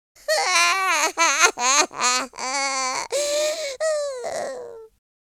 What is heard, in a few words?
sad